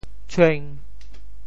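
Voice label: "tshueng5", Teochew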